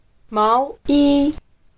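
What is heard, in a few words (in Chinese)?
"毛衣", Chinese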